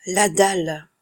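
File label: pronunciation fr la dalle, French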